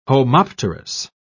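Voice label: "homopterous", British English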